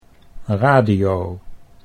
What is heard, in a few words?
"radio", Dutch